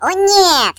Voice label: "Nooo", ru; hedgewars; Default ru